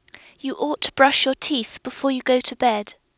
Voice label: speech from modified speech magnitude using speech phase